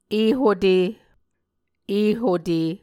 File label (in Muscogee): "ehote", Muscogee